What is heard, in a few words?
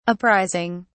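"apprising", British English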